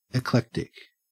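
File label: "eclectic", Australian English; pronunciation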